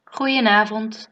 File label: good evening, dutch